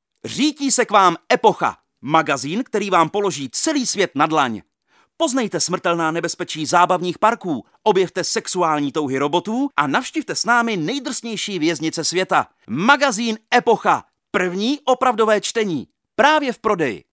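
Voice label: Male Czech commercial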